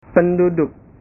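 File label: "penduduk", Indonesian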